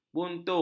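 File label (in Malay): "buntu", Malay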